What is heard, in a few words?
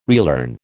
"relearn", American